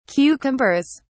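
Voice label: "cucumbers", British English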